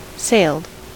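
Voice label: "sailed", American English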